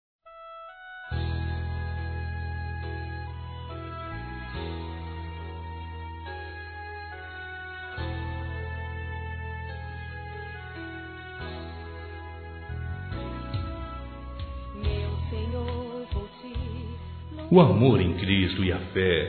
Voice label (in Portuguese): Otimismo Para Filha Masculino; Telemensagens